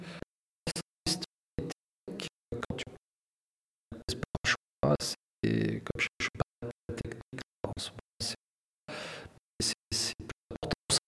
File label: unvoiced